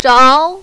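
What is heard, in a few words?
"zhao" second tone, mandarin, female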